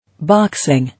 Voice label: "Boxing", English